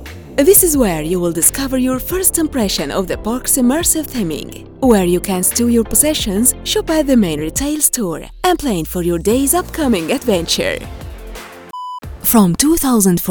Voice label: english with arabic accent, english female voices arabic accent